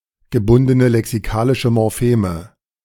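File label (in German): "gebundene lexikalische Morpheme", German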